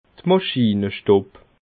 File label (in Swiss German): "maschinestub", alsace